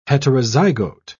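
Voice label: "heterozygote", English, British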